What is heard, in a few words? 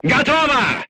voice message charged, crew tanks russian